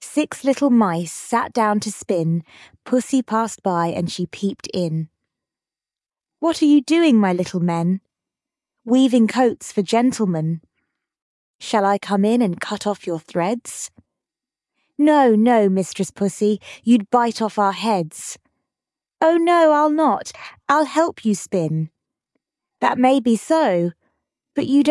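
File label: Six Little Mice, female British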